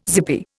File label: "zippy", Indian